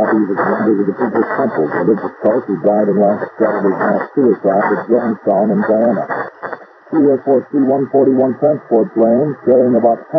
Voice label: Clip from overseas call